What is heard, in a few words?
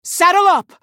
female human trigger noble mount